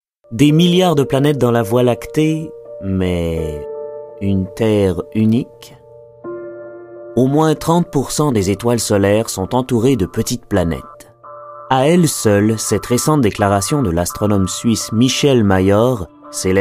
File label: Narration Voice Over French; Planete